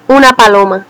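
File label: pigeon, Spanish; animals; birds; tuxpaint-stamps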